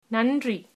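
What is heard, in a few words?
thanks, Tamil, female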